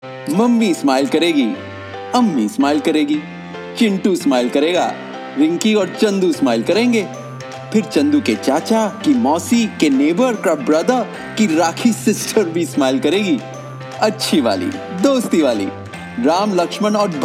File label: Hindi